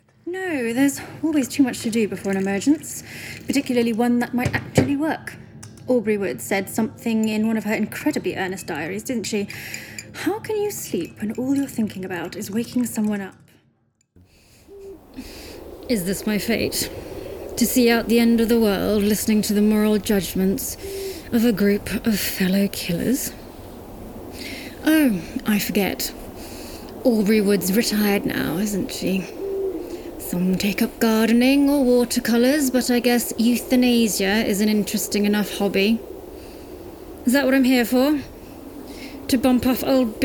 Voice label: Character